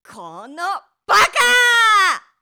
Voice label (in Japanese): "この、バカ～", 怒鳴る女・怒る女